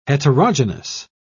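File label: "heterogenous", English, British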